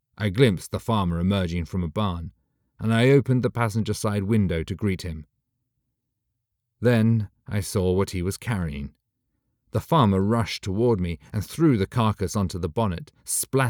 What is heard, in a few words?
Audiobook sample; Neverlands Library; Slavic dragon argument